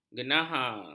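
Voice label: "genahar", Malay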